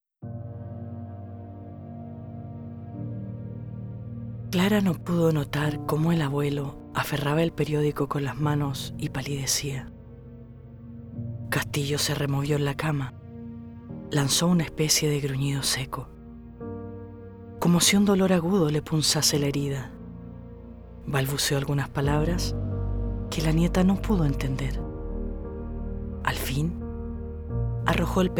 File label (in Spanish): narracion audiolibro